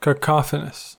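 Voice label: "cacophonous", US English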